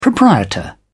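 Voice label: "proprietor", British English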